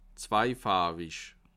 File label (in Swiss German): "zweifarwig", alsace